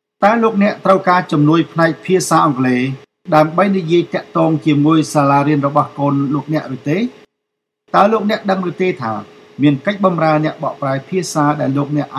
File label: khmer; public schools; going to a public school